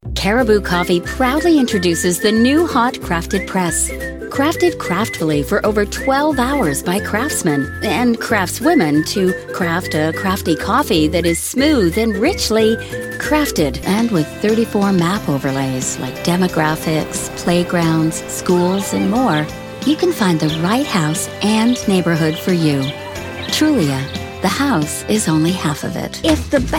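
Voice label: female, Canadian English, commercial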